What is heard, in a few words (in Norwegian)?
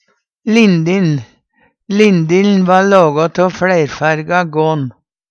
lindil, Numedalsmål; Substantiv hankjønn